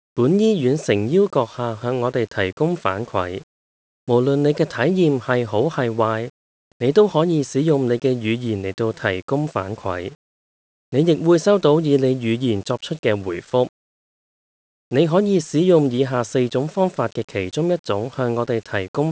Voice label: Cantonese; How to provide feedback